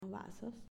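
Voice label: "Vasos", Spanish, female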